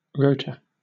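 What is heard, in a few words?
"rota", British English; pronunciations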